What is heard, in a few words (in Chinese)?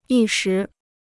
"一时", Chinese